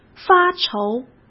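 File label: "发愁" Mandarin Chinese; hsk; chinese-flashcards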